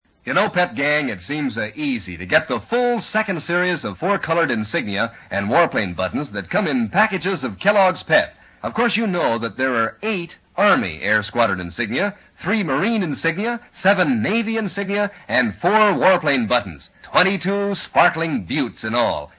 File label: Commercial Pep; 1945 Kelloggs